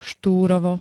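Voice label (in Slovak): "Štúrovo", Slovak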